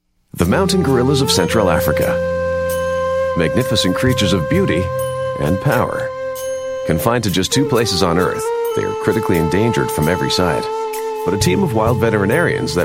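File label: English Canadian, male, narration; accent of canada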